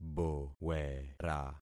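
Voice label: "bowera", concatenated syllables; Affixing experiment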